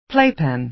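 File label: "playpen", American English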